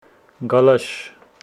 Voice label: Homshetsi wind